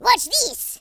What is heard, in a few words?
watch this, French Lover; speech